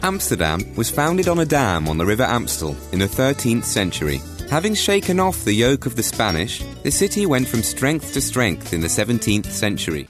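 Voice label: Dutch English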